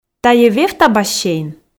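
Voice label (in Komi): "тайӧ вевта бассейн", Komi, female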